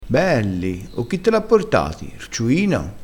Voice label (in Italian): "ciuino", crespinese